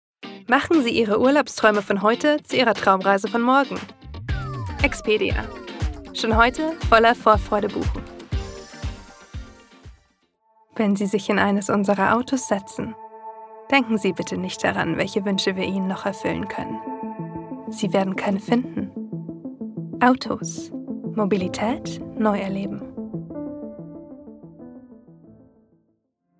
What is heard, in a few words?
female German compilation; Devine Voices